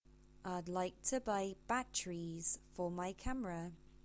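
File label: English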